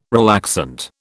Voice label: "relaxant", British